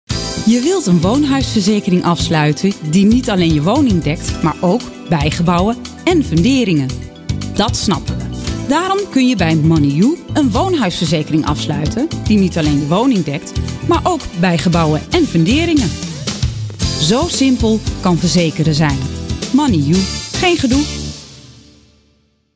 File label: Commercial; Money You